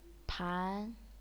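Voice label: "pan", second tone, chinese pinyin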